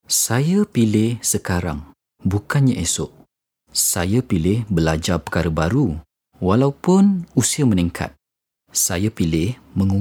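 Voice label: Bahasa Malaysia male VO Announcer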